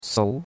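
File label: "sou2", Chinese; Cours de Chinois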